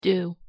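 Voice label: "do", English pronunciation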